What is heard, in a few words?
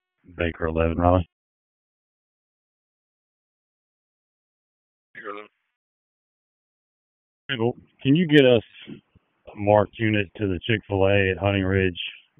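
Police District Dispatch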